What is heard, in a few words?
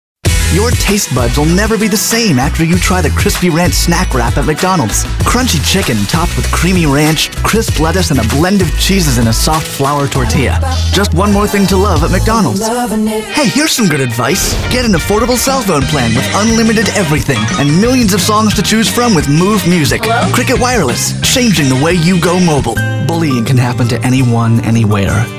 Men Commercial